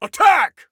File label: Attack, Soldier Male